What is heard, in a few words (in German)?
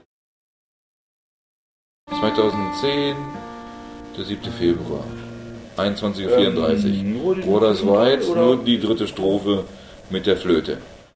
datumansage; the water is wide floete